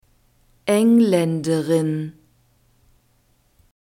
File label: "englaenderin", German